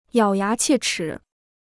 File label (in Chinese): "咬牙切齿", Chinese